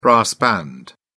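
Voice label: "brass band", British English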